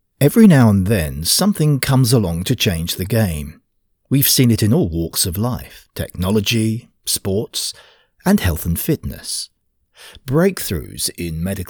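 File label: English British, male, narration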